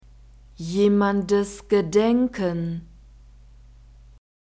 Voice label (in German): jemandes gedenken, German; nouns declension genitive